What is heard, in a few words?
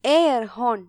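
"air horn", Malayalam; gcompris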